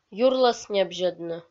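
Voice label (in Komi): "юрлӧс небзьӧдны" Komi, female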